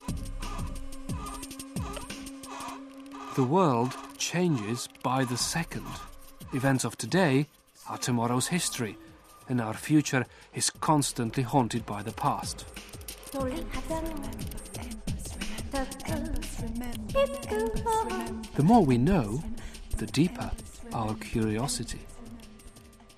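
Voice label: Czech, English; Czech, Eastern European, Male, Home Studio, 30s-40s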